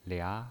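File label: "lia", pinyin, Mandarin Chinese, second tone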